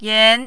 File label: "yan2", mandarin